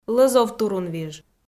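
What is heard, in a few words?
"лӧзовтурунвиж", Komi, female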